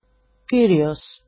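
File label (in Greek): "kyrios", Greek erasmic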